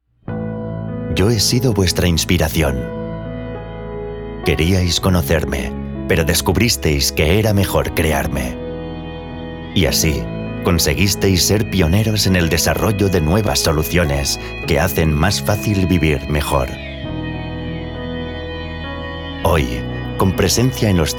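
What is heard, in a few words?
Spanish, male, narration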